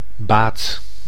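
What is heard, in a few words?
"baat", Dutch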